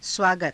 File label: "svaagata", hindi